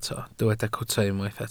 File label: "cwta", Welsh